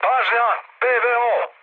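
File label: Serbian damaged aa mood med, speech; War Thunder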